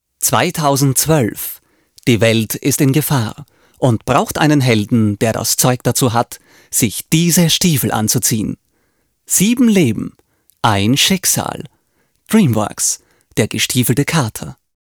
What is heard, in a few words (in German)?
Kinotrailer; Der gestiefelte Kater